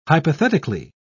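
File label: "hypothetically", British English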